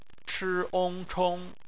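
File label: "chong", bopomofo, chinese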